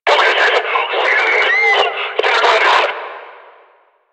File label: Player Spotted Attack, Barks Battle; Warframe; Lasrian; Lasrian Enemy A